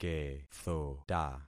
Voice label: "kethota", concat syllables; Affixing experiment

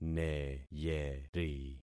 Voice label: "neyeri", concat syllables; Affixing experiment

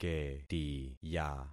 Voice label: "ketiya", concat syllables; Affixing experiment

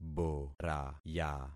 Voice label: "boraya", concat syllables; Affixing experiment

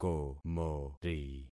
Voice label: "komori", concat syllables; Affixing experiment

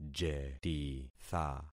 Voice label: "jetitha", concat syllables; Affixing experiment

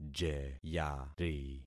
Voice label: "jeyari", concat syllables; Affixing experiment

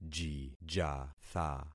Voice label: "jijatha", concat syllables; Affixing experiment

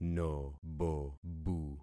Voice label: "nobobu", concat syllables; Affixing experiment

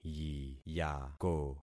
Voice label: "yiyako", concat syllables; Affixing experiment

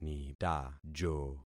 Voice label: "nitajo", concat syllables; Affixing experiment

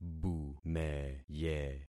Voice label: "bumeye", concat syllables; Affixing experiment

